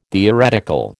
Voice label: "theoretical", American